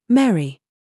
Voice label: DRESS TRAP, minimal pairs